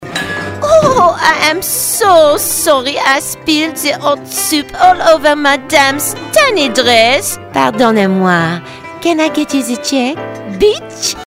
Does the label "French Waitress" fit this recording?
Yes